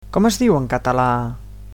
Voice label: "how to say", Catalan

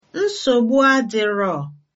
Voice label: youre welcome, igbo

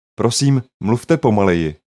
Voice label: speak slowly, czech

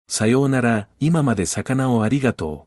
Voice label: so long, Japanese; さようなら、いままで魚をありがとう

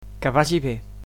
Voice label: "goodbye", Catalan

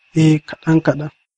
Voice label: yes a little hausa

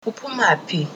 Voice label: where from zulu

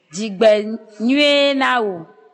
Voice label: birthday, ewe

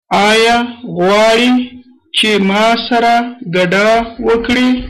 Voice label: dance, pashto

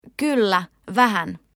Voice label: yes a little, Finnish

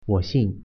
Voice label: name, mandarin

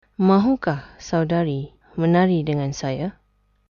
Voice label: dance, malay

